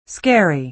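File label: "scary", American English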